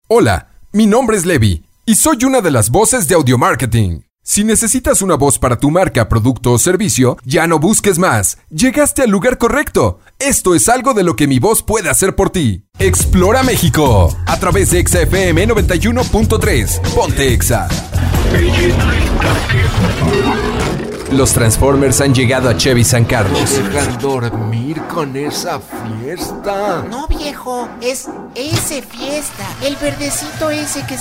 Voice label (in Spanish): Audiomarketing Mexico, Locutores